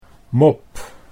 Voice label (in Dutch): "mop", Dutch